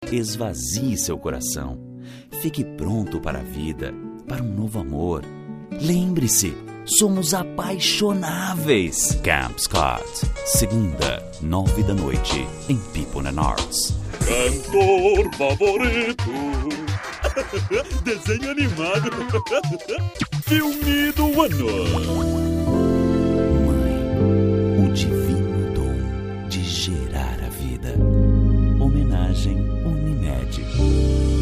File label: Brazilian Portuguese; foreign languages